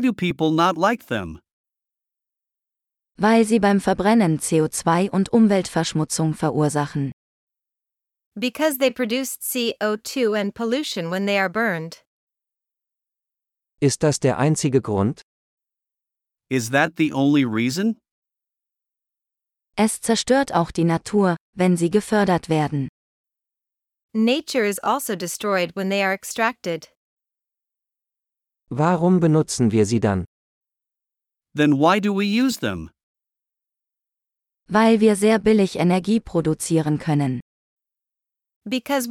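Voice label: Fossil Fuels - Dialogue (with translations), German; de-en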